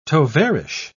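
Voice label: "tovarich", British English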